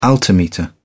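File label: "altimeter", British English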